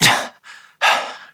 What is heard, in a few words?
Operator Voice, en; Operator Mission; Generic Land